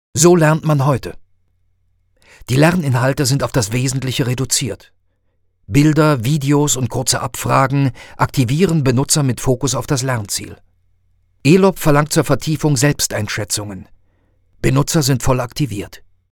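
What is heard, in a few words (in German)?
Kommentar Dynamisch; Fremdtake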